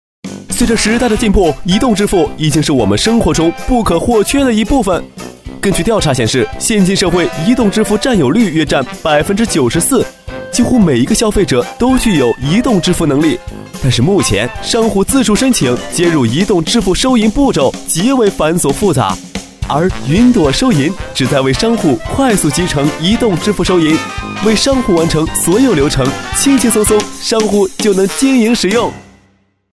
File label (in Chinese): 调侃; 飞碟; 云朵收银